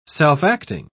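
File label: "self-acting", British English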